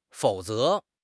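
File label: "fou3 ze2", Mandarin Chinese; HSK4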